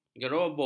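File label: "gerobok", Malay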